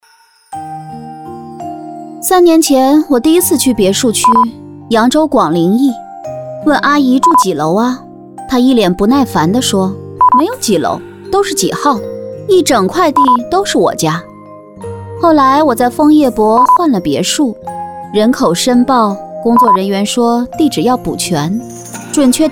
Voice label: 御姐成女音; 衍天篇御姐成女音

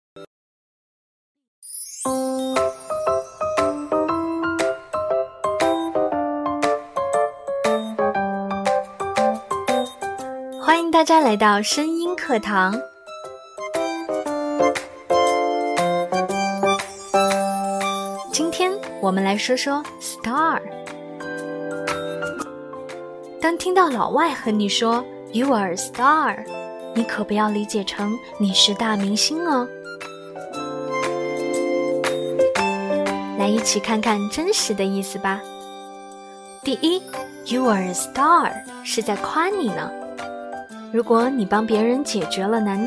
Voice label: 有声读物

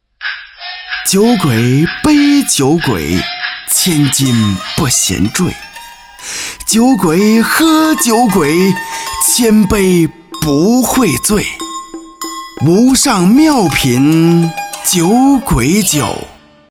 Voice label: 酒广告; 酒鬼酒

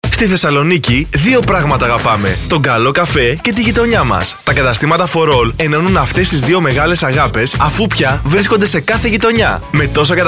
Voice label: 希腊语男激情广告

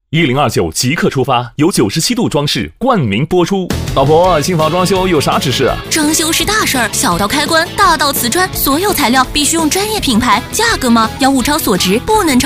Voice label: 装饰电台广告活力激情

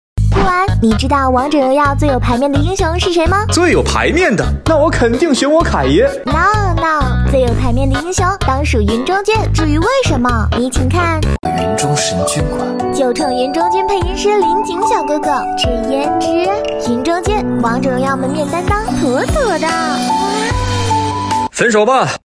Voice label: 温柔风格抖音解说

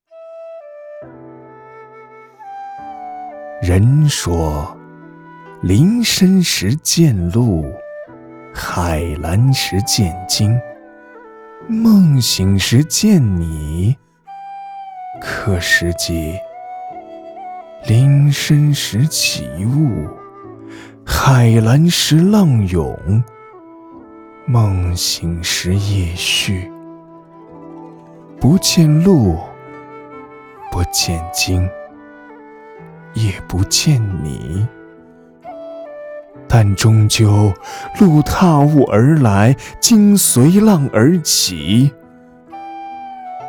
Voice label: 老者 人生感悟

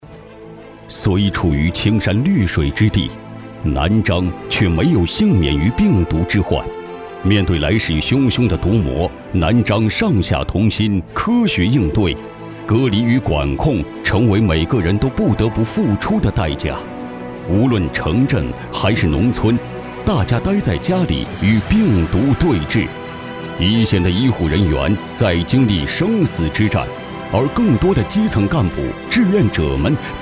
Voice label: 专题 大气深沉; 南漳抗疫

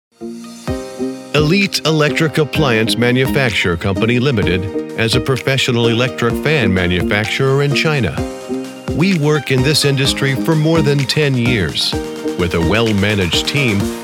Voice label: 企业宣传

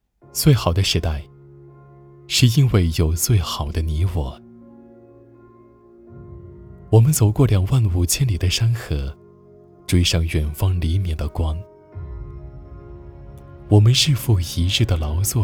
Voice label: 走心旁白; 最好的时代

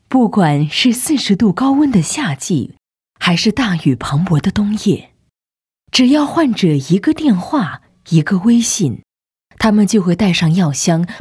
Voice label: 宣传医院大气有感情

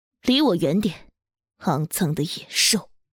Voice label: 御姐傲娇女王

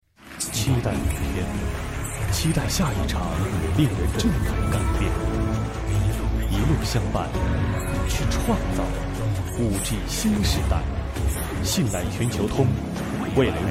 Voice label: 浑厚广告

